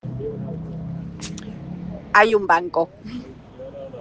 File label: Voice message